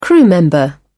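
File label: "crew member", British English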